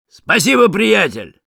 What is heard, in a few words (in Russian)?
Sniper thanks for the teleporter, ru; Спасибо, приятель!